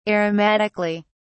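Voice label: "aromatically", British English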